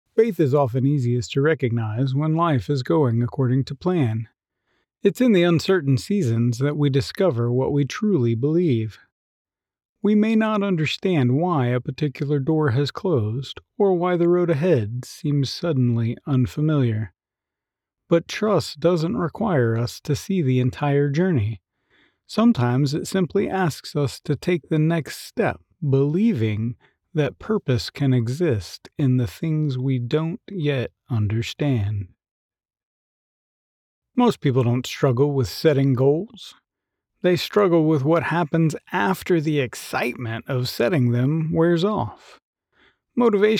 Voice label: Audiobook Narration